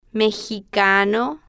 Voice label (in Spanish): "mexicano", Spanish